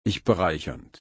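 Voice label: "bereichern", German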